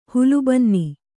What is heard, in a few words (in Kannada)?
"ಹುಲ್ಲುಬನ್ನಿ", Kannada